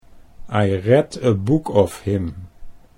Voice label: read book him, Dutch accent